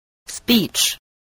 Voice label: "speech", voice spelling